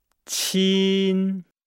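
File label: "qin", Chinese; Nihao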